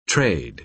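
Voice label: "trade", American English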